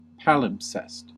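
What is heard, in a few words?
"palimpsest", American English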